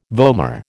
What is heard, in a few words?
"vomer", American